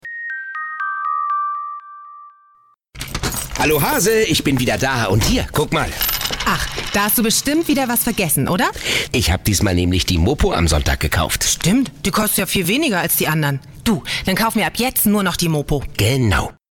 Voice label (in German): Sprecherdemo Werbung; Mopo am Sonntag